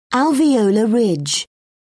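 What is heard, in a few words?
"alveolar ridge", British English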